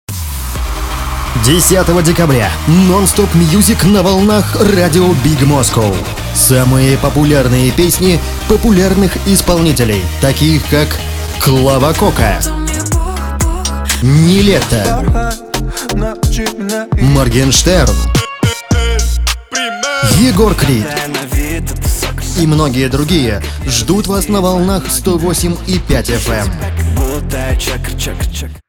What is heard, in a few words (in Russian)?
запись рекламы для радио